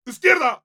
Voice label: meleeing, es